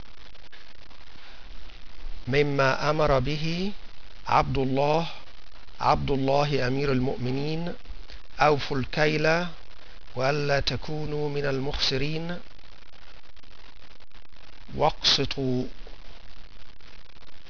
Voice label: arabic